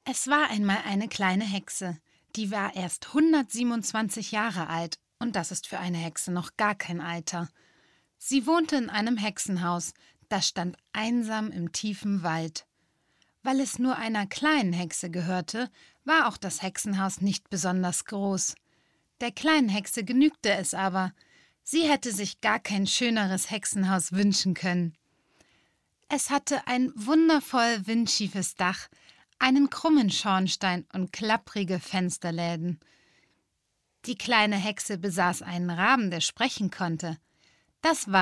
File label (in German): erzählung; LOFT VOICES